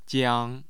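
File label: "jiang", Mandarin Chinese, tone 1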